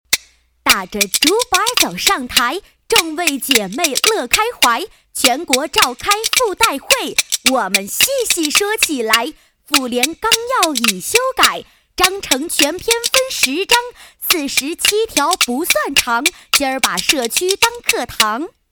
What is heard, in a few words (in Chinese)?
女 快板